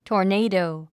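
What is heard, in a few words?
"tornado", 英単語 発音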